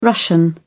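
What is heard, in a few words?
"russian", British English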